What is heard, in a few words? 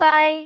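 "bai1", Cantonese; microsoft Hiu Maan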